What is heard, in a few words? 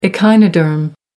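"echinoderm", British English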